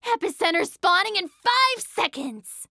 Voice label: Announcer Survival Begin; Evie; Paladins